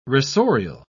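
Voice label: "rasorial", British English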